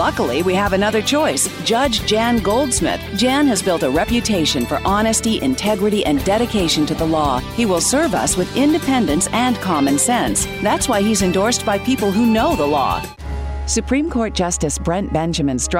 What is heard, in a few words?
english american political ads